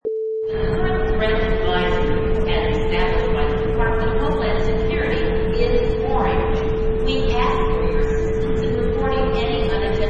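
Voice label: Airport Security Announcement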